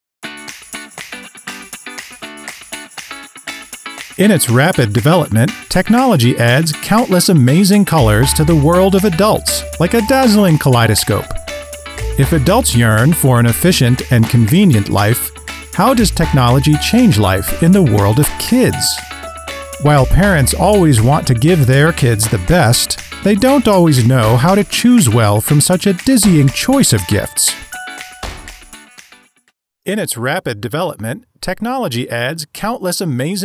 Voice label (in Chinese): 活泼; 小天才; MG风格